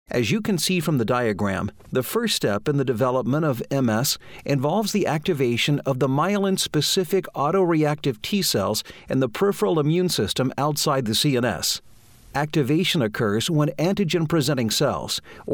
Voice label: Narration Medical Male, Narration, Medical Narration